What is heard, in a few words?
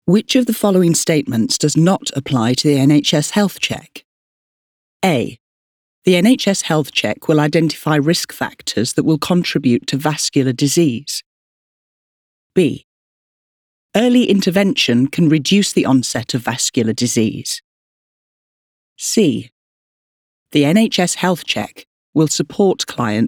elearning